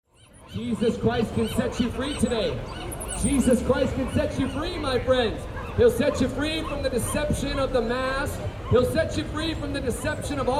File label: Street Preacher; COVID; Fearful Churches